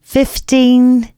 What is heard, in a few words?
announcements female